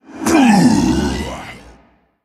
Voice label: Male Elemental Death